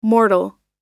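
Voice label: "mortal", American English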